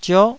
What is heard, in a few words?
"zio9", Taiwanese syllable